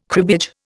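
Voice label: "cribbage", Indian English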